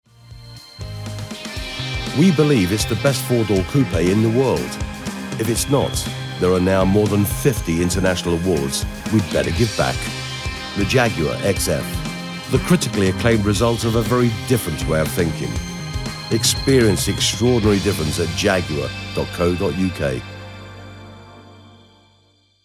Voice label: English British automotive

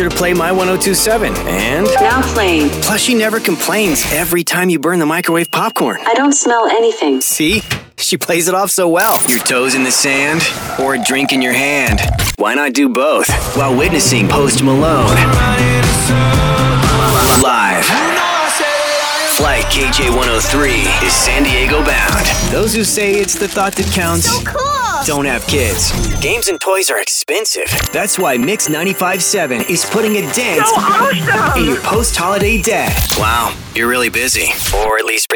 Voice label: english american radio imaging

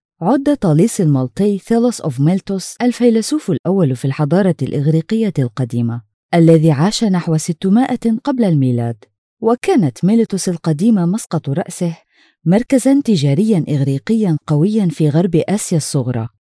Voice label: Arabic corporate videos

